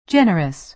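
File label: "generous", American English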